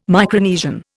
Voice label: "Micronesian", Indian